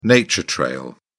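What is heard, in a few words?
"nature trail", British English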